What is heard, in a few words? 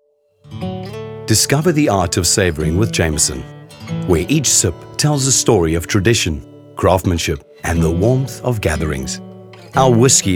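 English voice over Soft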